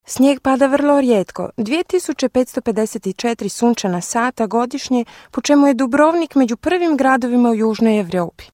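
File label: croatian, female voice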